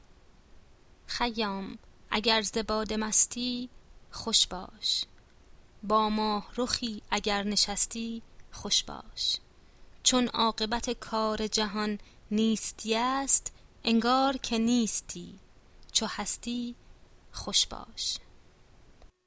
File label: pronunciation, Persian